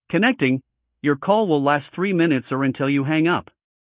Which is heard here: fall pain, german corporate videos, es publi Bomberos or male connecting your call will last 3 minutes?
male connecting your call will last 3 minutes